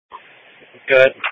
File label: Police Patrol 1 (Dispatch)